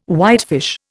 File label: "whitefish", Indian